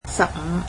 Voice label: "fifteen", Cantonese